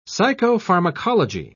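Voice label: "psychopharmacology", British English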